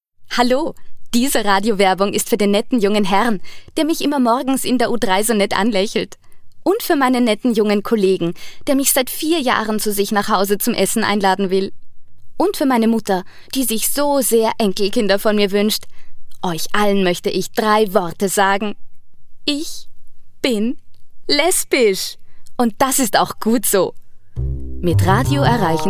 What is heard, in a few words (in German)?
werbung gestaendnis; Sprecherdatenbank